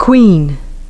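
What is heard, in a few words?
"queen", English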